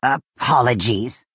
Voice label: Argonian female sorry

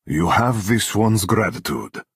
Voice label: Khajiit male thank you

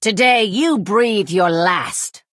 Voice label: Redguard female taunt

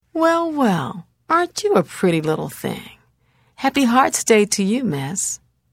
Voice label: Holiday Hello Redguard Female; Unused

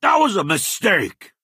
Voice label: Orc male oops

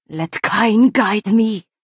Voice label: Nord female implore

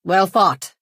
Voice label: Imperial female good game

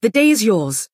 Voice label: Altmer female concede

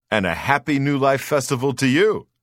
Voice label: New Life Greeting Redguard Male; Unused Holiday

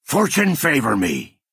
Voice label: orc male implore

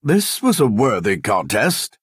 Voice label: Dunmer male good game

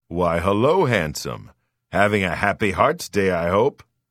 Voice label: Holiday Hello Redguard Male; Unused

